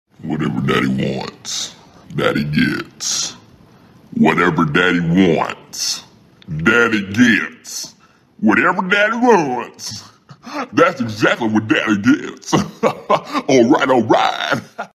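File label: russian swearing; csgo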